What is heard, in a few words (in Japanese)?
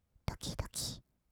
ドキドキ, kawaii voice; ASMR; 色々なワンショット; 1200 kawaii voice!!